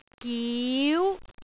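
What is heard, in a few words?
"giu2", Cantonese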